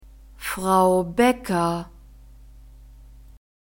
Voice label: "frau becker", German